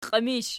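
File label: "qiimish" nominative, Archi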